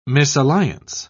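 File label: "misalliance", British English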